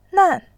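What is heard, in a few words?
"nan", Mandarin, tone 4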